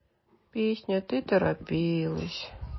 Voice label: sad